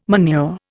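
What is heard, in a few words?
"manure", Indian English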